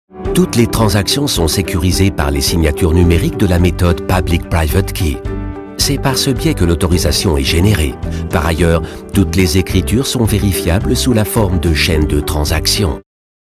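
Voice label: French image; sprecher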